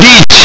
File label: male; mahjong sengoku jidai